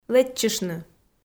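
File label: "лэччыштны" Komi, female; kpv audio dict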